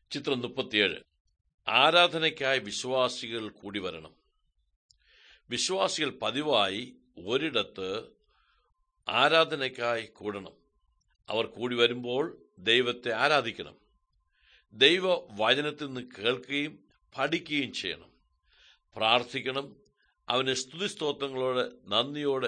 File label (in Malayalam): good news malayalam, drama; bible; world bible translation center nt drama